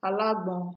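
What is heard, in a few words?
"alagbon", Nigerian Pidgin, female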